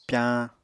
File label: "pyañ", Apatani